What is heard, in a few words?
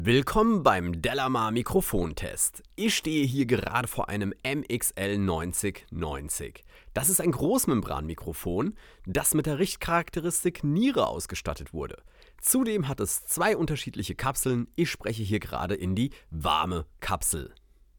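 speech male; mxl 9090wrm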